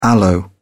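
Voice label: "aloe", British English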